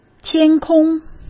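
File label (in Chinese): "天空", Mandarin Chinese; hsk; chinese-flashcards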